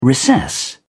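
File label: "recess", British English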